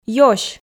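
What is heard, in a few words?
"ёсь", Komi, female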